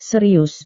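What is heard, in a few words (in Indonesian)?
"serius", Indonesian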